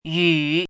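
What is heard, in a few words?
"yǔ", Mandarin Chinese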